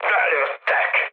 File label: aircraft destroyed, speech hun; War Thunder